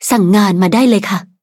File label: levelup, th